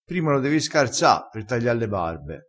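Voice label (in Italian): "scarza", dialettale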